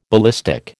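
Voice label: "ballistic", American English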